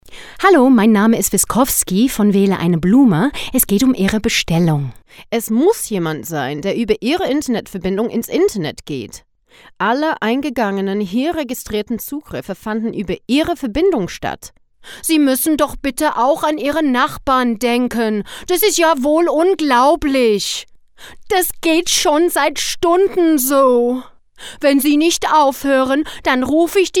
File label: Voice Artist Pranks German